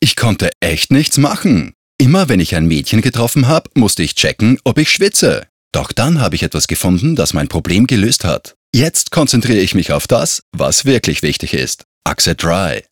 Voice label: dry; axe